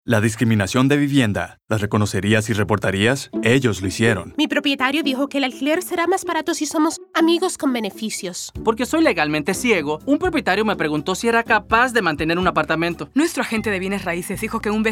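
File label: Radio Spanish National; NFHA-HUD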